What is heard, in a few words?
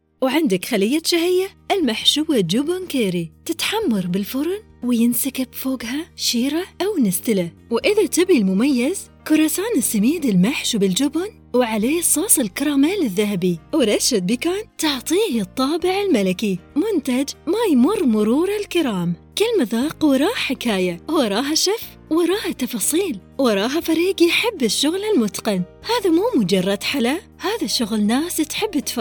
voiceover